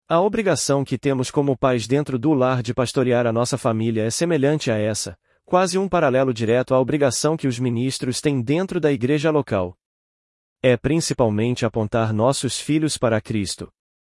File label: portuguese pt-BR Neural; NicolauNeural